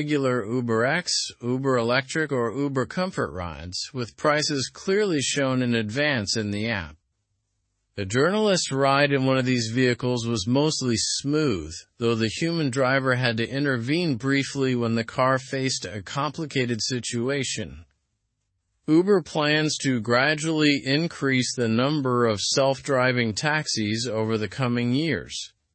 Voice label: learn english through news upper intermediate; uber s self driving taxis now available in london slow

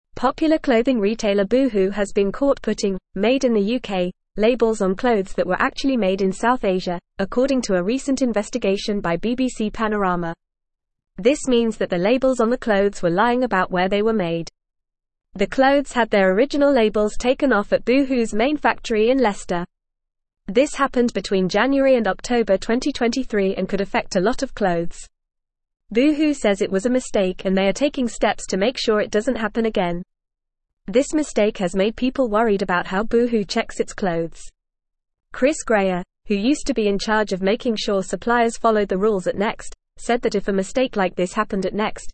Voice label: English Upper Intermediate FAST Reading; Boohoo Mislabels Clothes Made in South Asia; English Newsroom

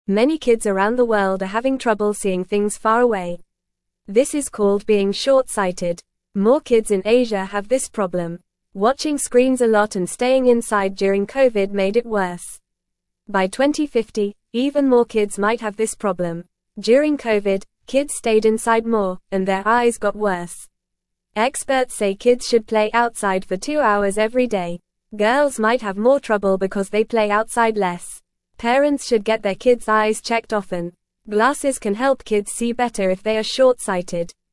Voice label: English Beginner FAST Reading; Kids and Screens How to Protect Your Eyes

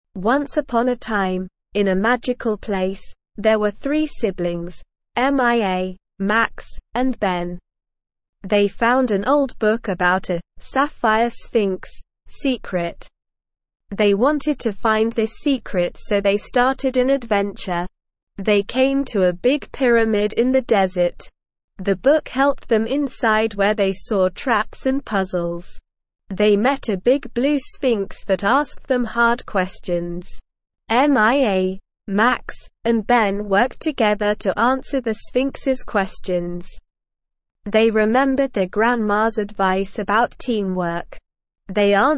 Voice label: ESL Short Stories for Kids Beginner SLOW Reading; The Secret of the Sapphire Sphinx

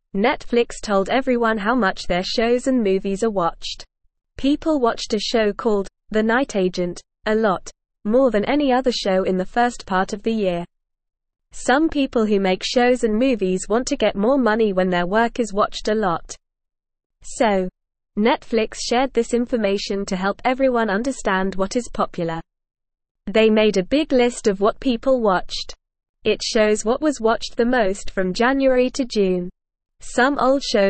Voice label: English NORMAL Reading; Netflix Shows and Movies What People Like; English Newsroom

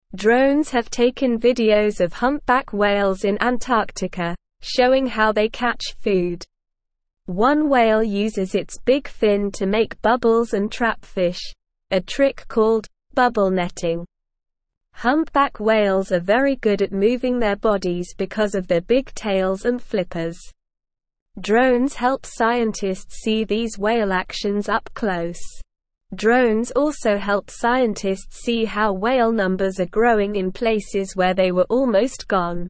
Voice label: English SLOW Reading; Drones help scientists study whales in Antarctica

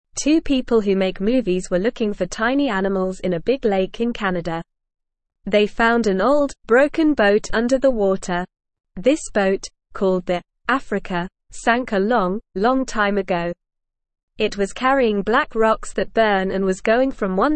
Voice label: English Newsroom Beginner NORMAL Reading; Surprise Find Old Boat Underwater Helps Us Learn

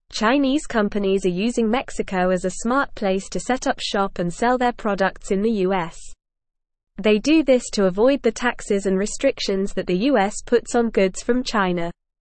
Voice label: English news reading, normal; English Newsroom Upper Intermediate; Chinese Companies Using Mexico as Backdoor to US

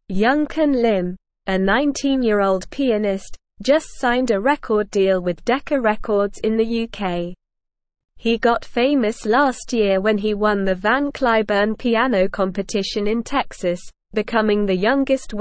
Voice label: English Upper Intermediate SLOW Reading

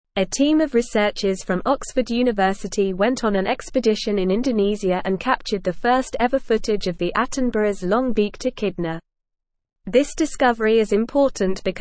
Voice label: English Upper Intermediate NORMAL Reading; Rediscovery of Attenboroughs Long Beaked Echidna; English Newsroom

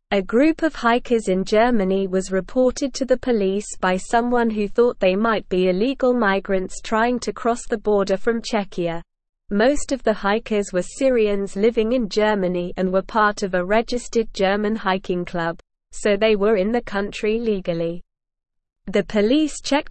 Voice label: English Upper Intermediate SLOW Reading; Hiking Group Reported as Illegal Migrants in German; English Newsroom

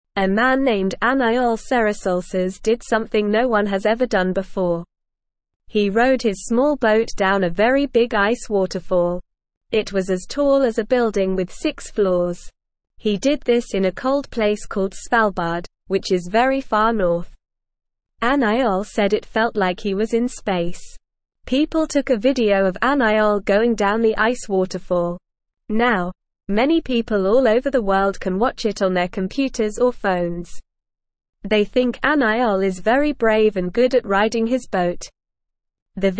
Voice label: English Beginner NORMAL Reading; Man rides boat down big ice waterfall; English Newsroom

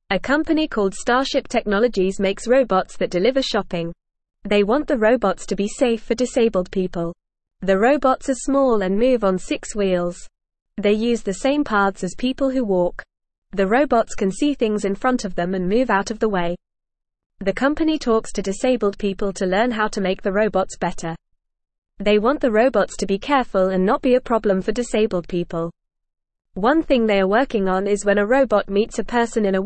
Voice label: English Newsroom Beginner FAST Reading; Robots Deliver Shopping Safely for Disabled

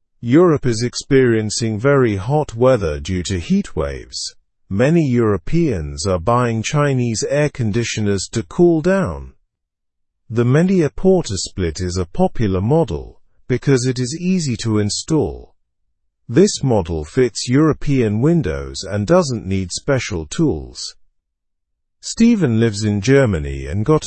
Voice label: english through news lower intermediate; europeans choose chinese air conditioners in heatwave

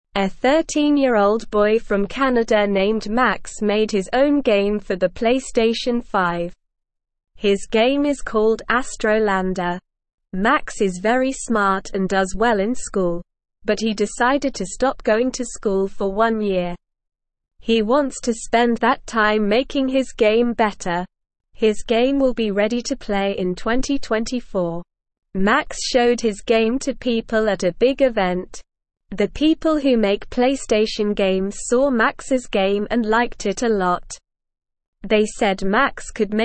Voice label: English Beginner SLOW Reading; English Newsroom; 13 Year Old Max Makes Astrolander Game for PlayStation 5

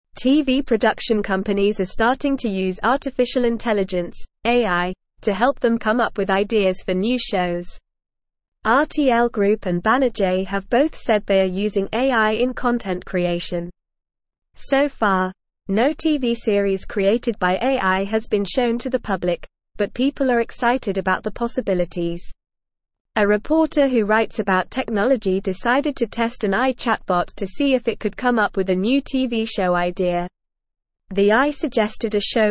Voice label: English NORMAL Reading; AIs Role in TV Production Generating Ideas and As

